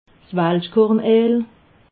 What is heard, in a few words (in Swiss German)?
"walschkorneel", alsace